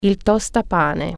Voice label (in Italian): "toaster", Italian